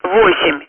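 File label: Speech, Russian, air traffic control; Digits; DCS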